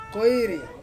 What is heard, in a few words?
"koiri", Ansus; one